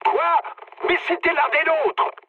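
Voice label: player killed ally, mood medium, French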